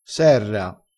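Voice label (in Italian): "serra", vocabolario dialettale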